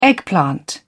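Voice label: "eggplant", British English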